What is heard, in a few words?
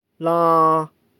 "laa", cantonese